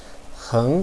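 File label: "heng2", Mandarin Chinese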